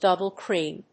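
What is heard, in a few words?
"double cream", English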